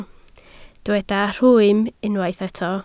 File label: "rhwym", Welsh